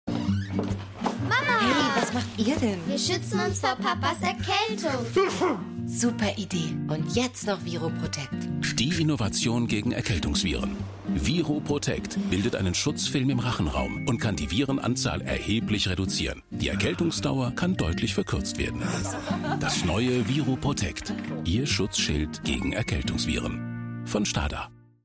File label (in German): Erkältungsspray TV-Spot; ViruProtect